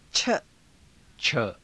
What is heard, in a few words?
"cha", hindi